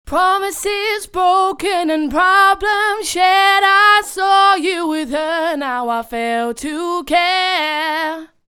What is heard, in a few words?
pitch corrected